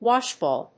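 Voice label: "washbowl", English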